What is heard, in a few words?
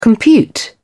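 "compute", English, British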